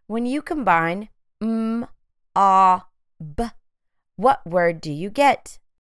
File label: m ŏ b phonemic blending